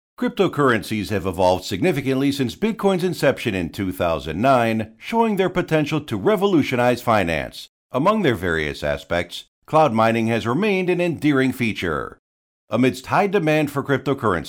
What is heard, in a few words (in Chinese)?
成熟磁性, 公司宣传